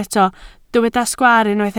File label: "sgwaar", Welsh